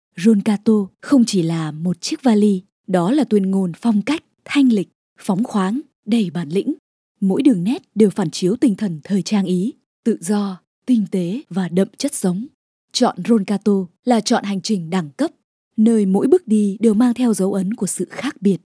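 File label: vietnamese narration, female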